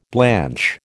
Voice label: "blanch", American

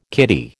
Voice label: "kiddie", American

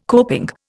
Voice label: "coping", Indian

